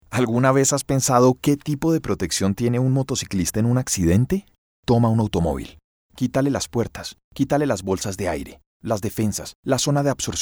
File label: radio span